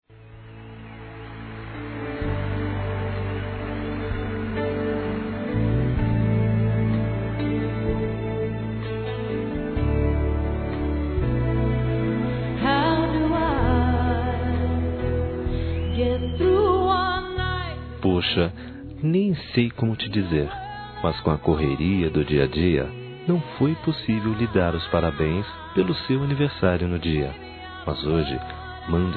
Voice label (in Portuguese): ATRAZADO (M), Telemensagens Aniversários